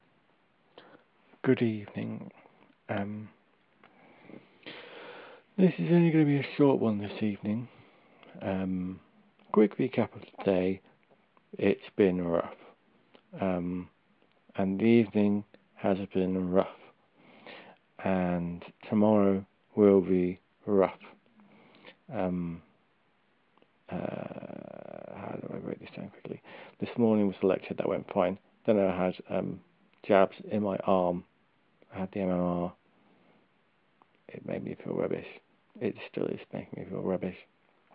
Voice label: lispy speech